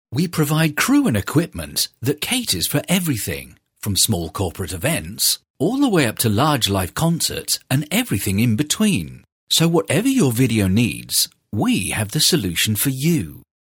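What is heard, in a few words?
english male; lektoring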